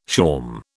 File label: "shawm", British